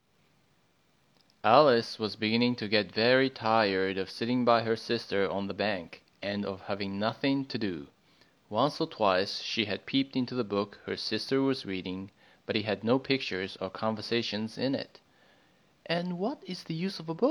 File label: narration